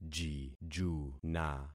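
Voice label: "jijuna", concatenated syllables; Affixing experiment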